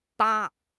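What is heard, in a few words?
"疤", Chinese; HSK6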